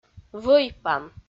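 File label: "вӧӥпан", Komi, female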